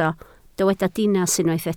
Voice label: "dinas", Welsh

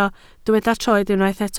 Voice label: "troed", Welsh

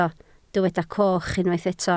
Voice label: "coch", Welsh

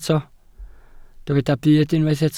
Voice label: "byd", Welsh

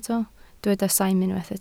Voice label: "saim", Welsh

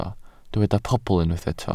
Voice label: "pobl", Welsh

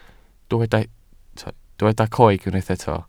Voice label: "coeg", Welsh

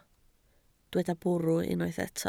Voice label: "bwrw", Welsh

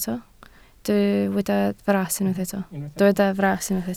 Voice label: "fras", Welsh

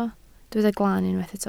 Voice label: "glaan", Welsh

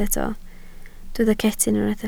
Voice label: "cetyn", Welsh

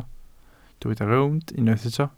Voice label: "rownd", Welsh

